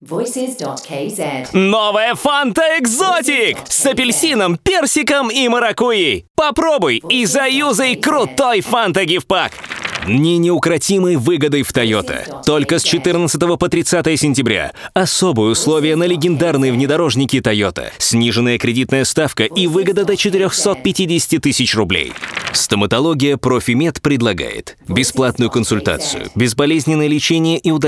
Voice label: ru